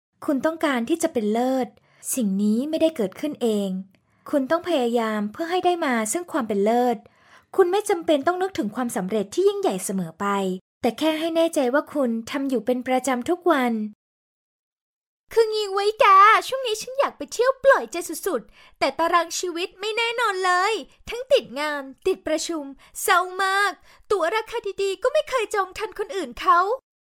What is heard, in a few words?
Thai female